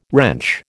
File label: "wrench", American